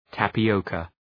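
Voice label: "tapioca", English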